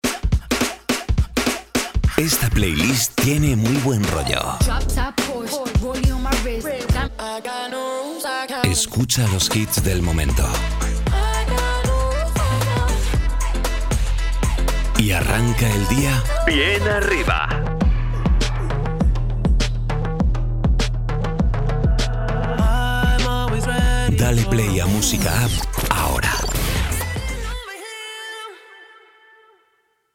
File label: male spanish castilian radio promo compilation